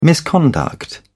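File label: "misconduct", British English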